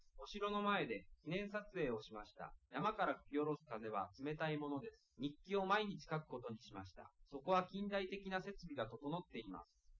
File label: male liverec 250ms 5cm sim; SiSEC08; SiSEC underdetermined